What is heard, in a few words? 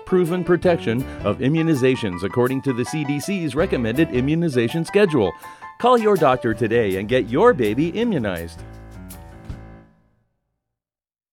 babies male; Immunization Toolkit; Immunization Campaign; Medi-Cal